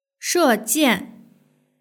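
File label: "shejian" Chinese word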